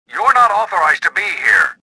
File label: suspicious; XBOX